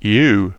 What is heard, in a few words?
"u" filled, English GB; tuxpaint-stamps; symbols; alphabets; lowercase